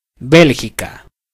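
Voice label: belgium, Spanish; gcompris-data; geography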